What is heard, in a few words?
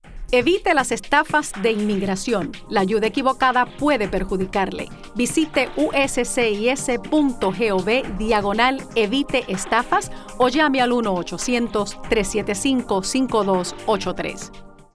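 Radio Spanish with Music; Avoid Scams; Radio PSA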